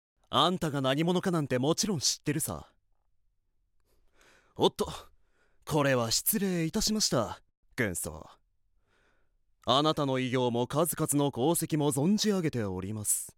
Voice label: 生意気な軍人

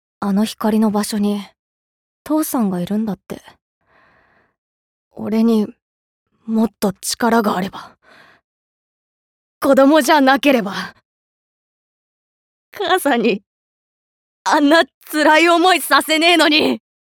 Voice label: Shonen

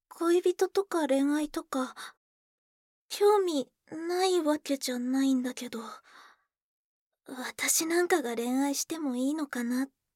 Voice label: Koukousei Monoshizuka Kiowai

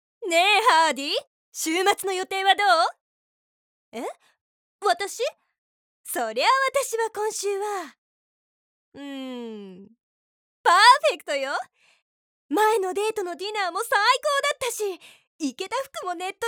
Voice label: Tyosino yoi Onna tomodathi, voice sample

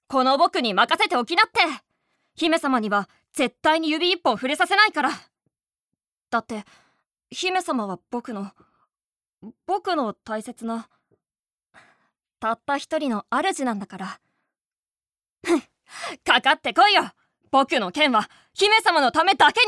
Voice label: Syounen; 姫を守る少年

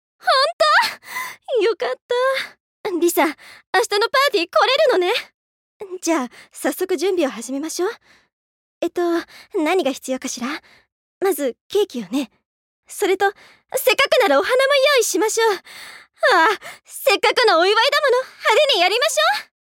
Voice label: Sewazukino Onesan

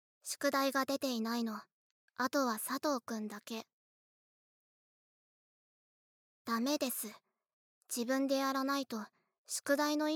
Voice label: クールな女子高生

